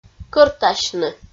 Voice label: "кӧртасьны" Komi

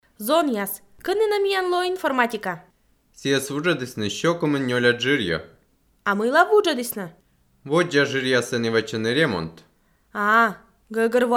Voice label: dialog univer, Komi

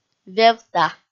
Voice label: "вевта" kpv f

